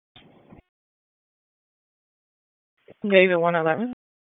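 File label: Police Southwest District Dispatch, p25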